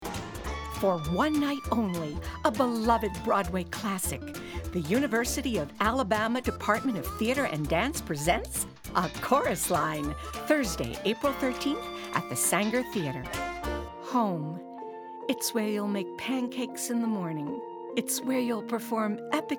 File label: VOICEOVER